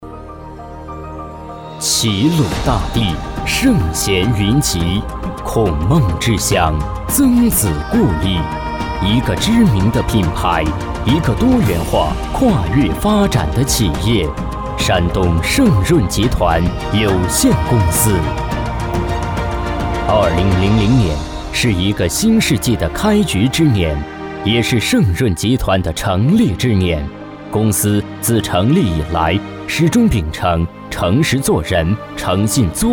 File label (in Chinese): 男 大气企业; 山东圣润集团